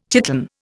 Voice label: "chiton", Indian

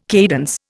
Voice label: "cadence", Indian

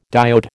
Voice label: "diode", American

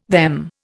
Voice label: "them", Indian

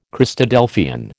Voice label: "Christadelphian", American

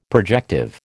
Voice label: "projective", American

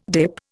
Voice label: "DIP", Indian

